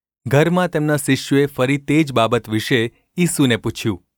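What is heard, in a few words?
gu ulb mrk, Gujarati; mrk 10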